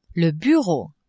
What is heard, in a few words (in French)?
"bureau", French; maison